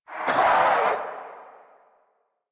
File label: Pain; Warframe; Zariman; Zariman Wraith